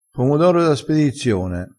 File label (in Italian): "pomodoro", crespinese